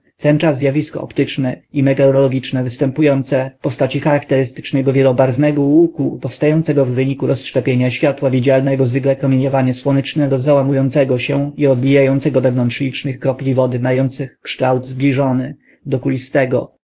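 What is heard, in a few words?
speaker, American English; piper-voices; pl PL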